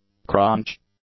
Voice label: "crunch", English; nouns